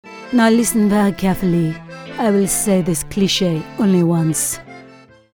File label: FRENCH